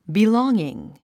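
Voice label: "belonging", English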